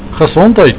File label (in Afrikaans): "gesondheid", Afrikaans